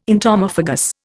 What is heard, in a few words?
"entomophagous", Indian